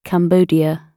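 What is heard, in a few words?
"cambodia", British English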